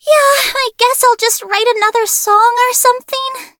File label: defeat, en; cookierunwiki